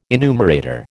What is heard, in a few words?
"enumerator", American